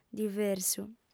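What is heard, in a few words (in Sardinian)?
"diversu", female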